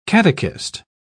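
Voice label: "catechist", British English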